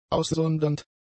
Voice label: "aussondernd", German; adjectives